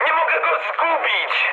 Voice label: aircraft damaged mood high, speech pol; War Thunder